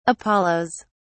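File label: "apollos", British English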